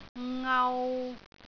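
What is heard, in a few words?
"ngau3", Cantonese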